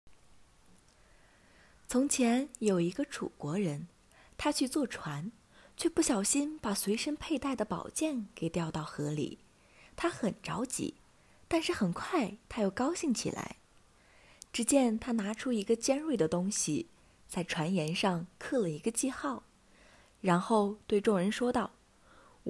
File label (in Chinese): 少儿成语故事